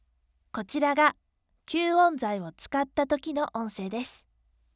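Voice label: recording sample with sound absorption; kyuon ari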